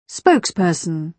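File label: "spokesperson", British English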